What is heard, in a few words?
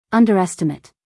"underestimate", us female; everyone-can-use-english